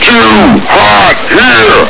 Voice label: run, voice commands, Grunt; svencoop